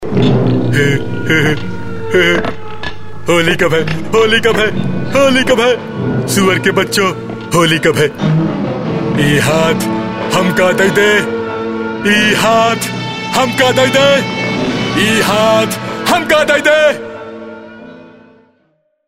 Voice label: male voice